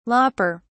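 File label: "lopper", British English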